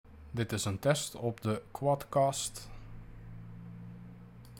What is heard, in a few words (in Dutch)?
sample microfoon; quadcast